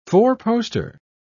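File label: "four-poster", English, British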